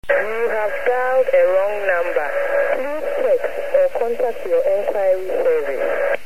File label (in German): Kein Anschluss, ghana